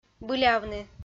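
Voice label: "былявны", Komi-Zyrian, female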